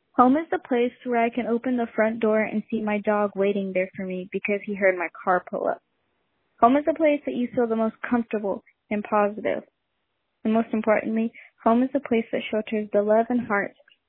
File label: Voicemail